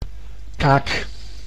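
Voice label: "kaak", Dutch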